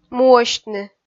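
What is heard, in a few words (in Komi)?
"муӧсьтны", Komi, female; kpv audio dict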